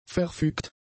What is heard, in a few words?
"verfügt", German; adjectives